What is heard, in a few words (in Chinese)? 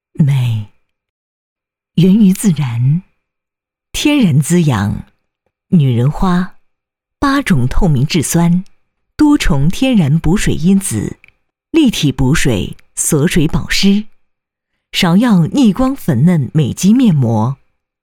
女 质感化妆品广告 芍药面膜